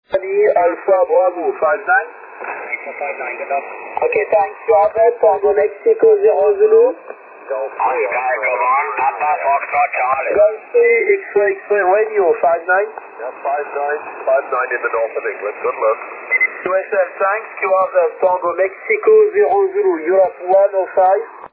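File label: 40m single sideband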